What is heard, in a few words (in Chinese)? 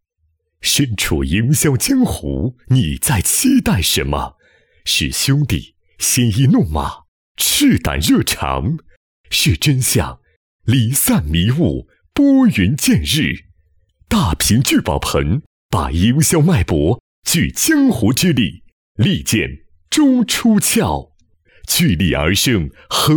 男 芒果台风格; 大屏聚宝盆; 聚变